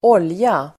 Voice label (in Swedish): "olja", Swedish